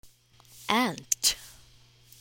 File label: "Ant", English; phonics; Blending Short Vowel Words